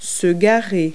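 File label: "park", English word; Multi-Lingual Talking Picture Dictionary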